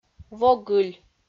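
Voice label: "вогыль", Komi, female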